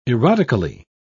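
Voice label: "erotically", British English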